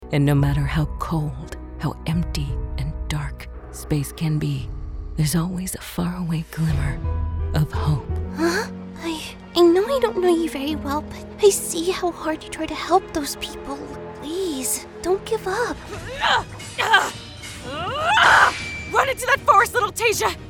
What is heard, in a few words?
Characters, female voices